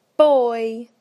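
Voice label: "boy", British English; PictureDictionary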